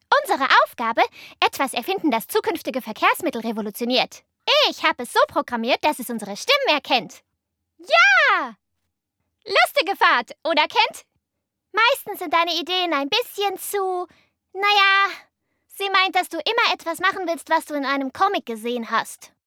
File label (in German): synchron animation; Muttersprache Deutsch